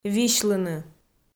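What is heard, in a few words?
"висьлыны", Komi, female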